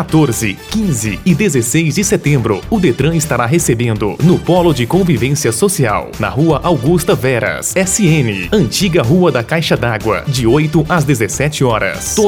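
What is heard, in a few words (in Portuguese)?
spot inscrições carteira de habilitação; bil gravacoes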